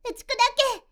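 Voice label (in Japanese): "uchikudake", Japanese; magi syozyo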